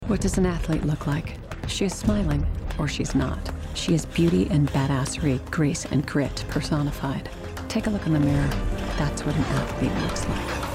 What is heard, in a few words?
Commercial Long